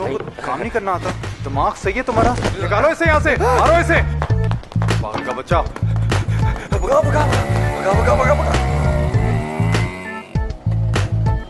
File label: pakistani funny ringtone